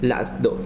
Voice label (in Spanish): "corazon" Zapoteco